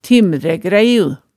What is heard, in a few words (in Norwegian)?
"timrægræiu", hemsedalsmaalet tale